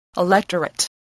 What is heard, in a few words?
"electorate", English